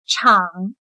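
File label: "场" Chinese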